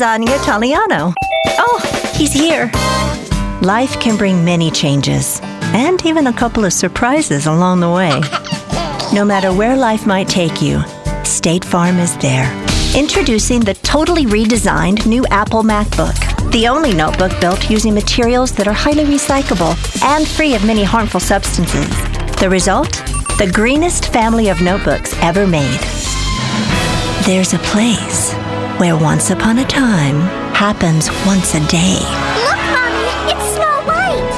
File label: Voice Over Demo